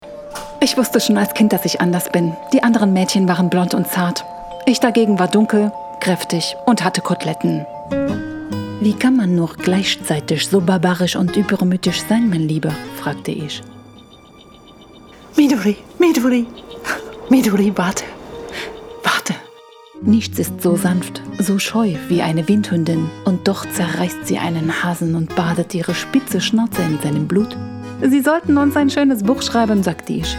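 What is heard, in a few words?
german impersonations, Femelle; doubleuse professionnelle allemande; Usurpations d'identité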